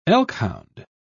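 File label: "elkhound", British English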